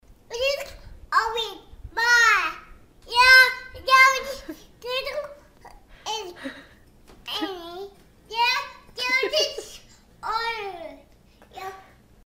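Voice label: child talking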